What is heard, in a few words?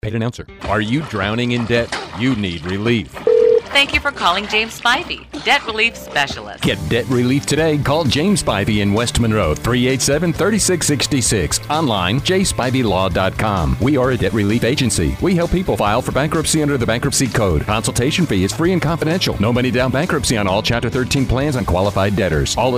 Radio